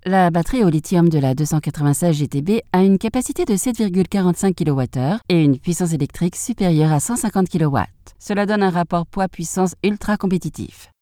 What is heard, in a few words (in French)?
voix off femme